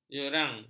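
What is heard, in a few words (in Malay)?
"jerang", Malay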